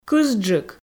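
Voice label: "кызджык", Komi, female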